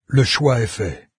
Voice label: choice, fr, NARRATOR; Fate

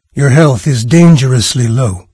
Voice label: health, narrator; Fate The Cursed King; en-US

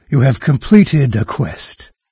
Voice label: quest complete, narrator; Fate The Cursed King; en-US

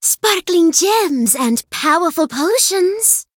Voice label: en-US; merch; Fate The Cursed King; GEM POTION

